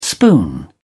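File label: "spoon", British English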